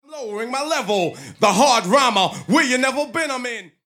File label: Vocals 'hard rhymer'